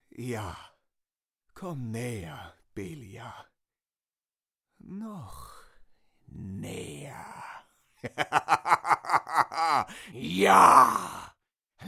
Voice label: gaming fantasy